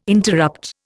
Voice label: "interrupt", Indian

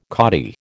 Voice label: "kadi", American

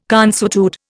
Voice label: "consuetude", Indian

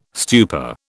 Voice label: "stupor", British

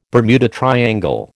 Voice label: "Bermuda triangle", American

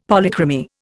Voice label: "Polychromy", Indian; Dictionary

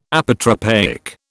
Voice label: "Apotropaic", British

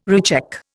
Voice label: "recheck", Indian

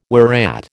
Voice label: "whereat", American

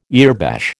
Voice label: "earbash", American

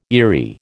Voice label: "eerie", American

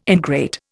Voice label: "ingrate", Indian

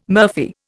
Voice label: "murphy", Indian

